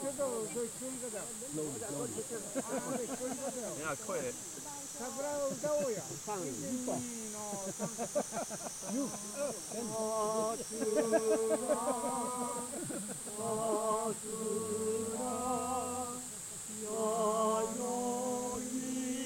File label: Miyajima Conversation with Old Hikers